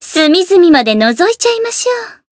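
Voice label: variable chant, Japanese; rosetta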